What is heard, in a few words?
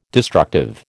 "destructive", American